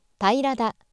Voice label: female